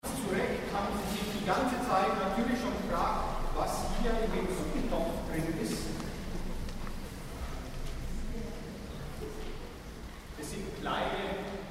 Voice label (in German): Ansage